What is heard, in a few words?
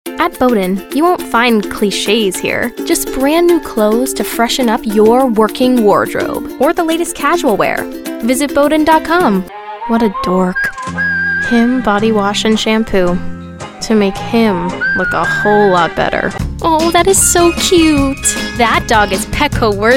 Commercial, Women